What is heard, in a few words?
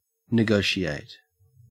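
"negotiate", English, Australian accent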